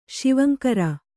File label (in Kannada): "ಶಿವಂಕರ", Kannada